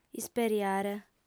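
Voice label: "isperiare", female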